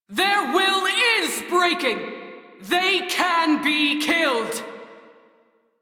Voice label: Crew Enemy Defeat, Female; Railjack Crew; New Loka